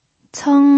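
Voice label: "ceng", pinyin, first tone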